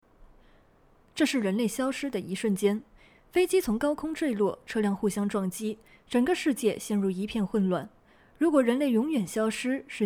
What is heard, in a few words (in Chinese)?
严肃女声